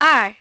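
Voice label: "two", English; iOS 4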